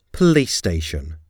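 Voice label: "police station", English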